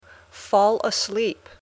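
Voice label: "fall asleep", English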